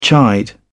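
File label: "chide", British English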